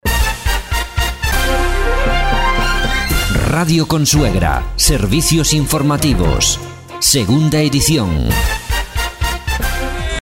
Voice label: news opening

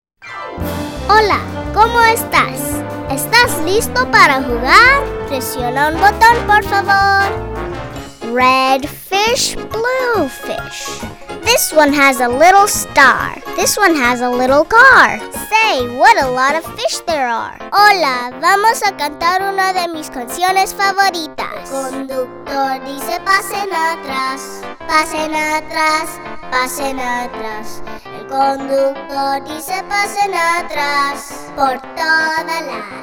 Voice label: Child Voice

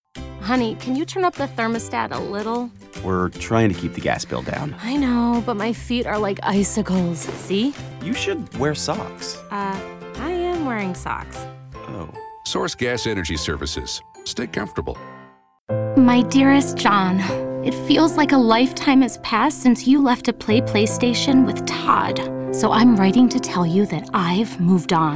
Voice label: Short Commercial

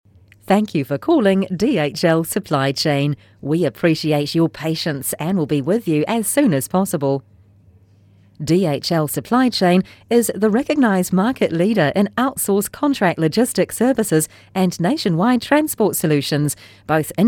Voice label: ON HOLD

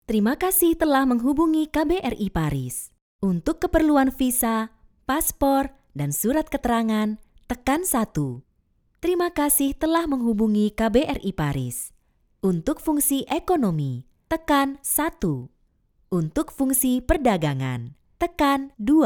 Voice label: IVR; KBRI Paris

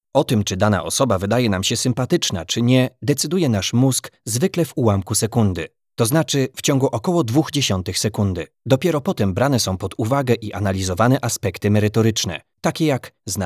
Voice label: POL Narration

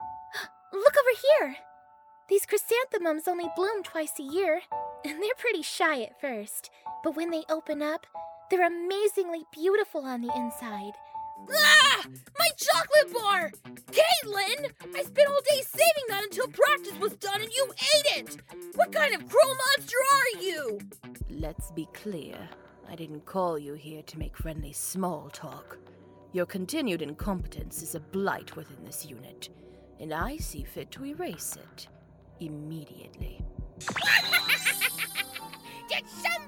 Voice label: Character

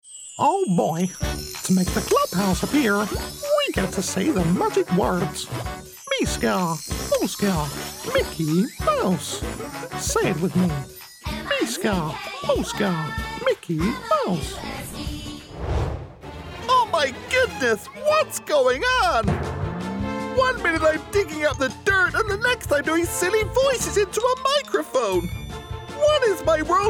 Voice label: Character Impressions Reel

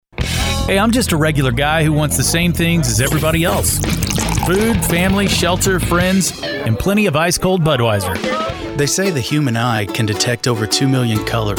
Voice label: commercial voice

